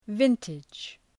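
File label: "vintage", English word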